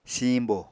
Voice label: "siiñbo", Apatani

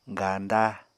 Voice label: "ganda", Apatani

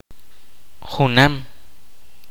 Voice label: kaqchikel; same

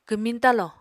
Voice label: "kwmiñ talo", Apatani; Material Culture

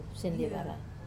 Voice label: "sendevara", outrigger boom, Wamesa

